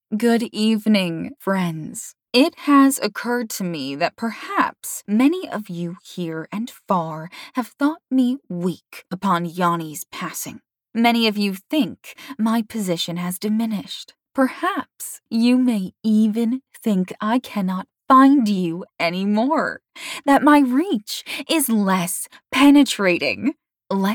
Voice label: Evil; Fallen